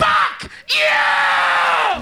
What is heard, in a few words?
fuck you screaming guy; Voice; expletives